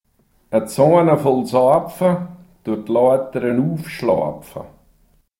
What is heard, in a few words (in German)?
Sax Spruch, Sennwalder Dialekte; Eine Zaine voller Seife die Leiter hinauftragen